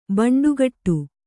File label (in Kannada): "ಭಂಡಗೇಡು", Kannada